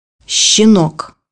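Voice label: "puppy", Russian; bukvar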